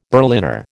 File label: "Berliner", American